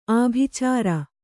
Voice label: "ಆಭಿಚಾರ", Kannada

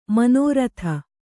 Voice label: "ಮನೋರಥ", Kannada; manōratha; noun

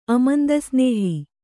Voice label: "ಅಮಂದಸ್ನೇಹ", Kannada

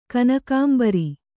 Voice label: "ಕನಕಾಂಬರಿ", Kannada

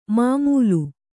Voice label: "ಮಮ್ಮಲ", Kannada

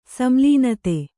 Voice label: "ಸಂಲೀನತೆ", Kannada

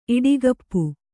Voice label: "ಇಡಿಗಪ್ಪು", Kannada

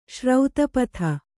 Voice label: "ಸರಿತ್ಪತಿ", Kannada

